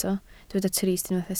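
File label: "trist" Welsh, spoken word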